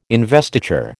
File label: "investiture", American